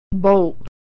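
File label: "bolt", American English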